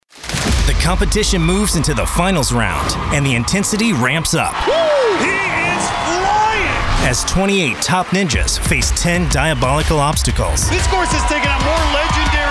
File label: Promo